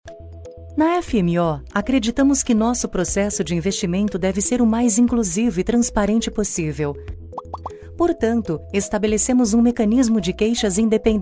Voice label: portuguese brazilian commercial

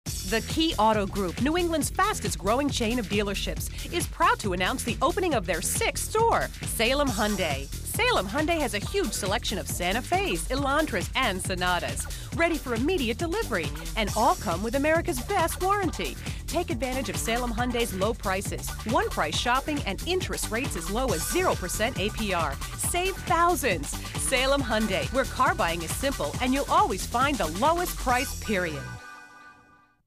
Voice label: english american automotive

